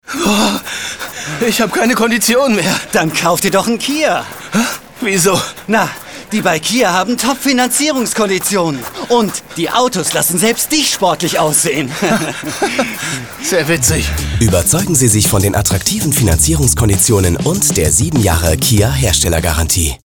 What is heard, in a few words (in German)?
funk spot; kia